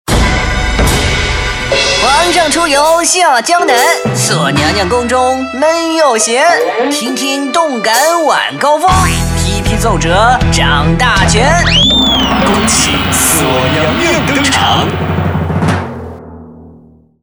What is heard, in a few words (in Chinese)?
男,电台片花 电台搞笑; 946动感晚高峰片花二